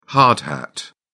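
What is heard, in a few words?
"hard hat", British English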